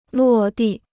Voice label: "落地", Chinese

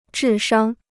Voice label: "智商", Chinese

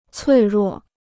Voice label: "脆弱", Chinese

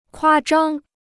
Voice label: "夸张", Chinese

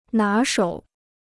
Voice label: "拿手", Chinese

